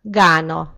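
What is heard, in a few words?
"ghana", Hungarian; gcompris; geography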